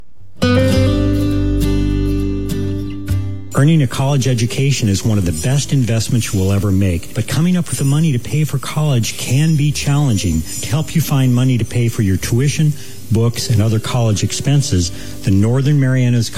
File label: commercial; kkmp; cnmi